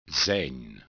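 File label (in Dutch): "zijn", Dutch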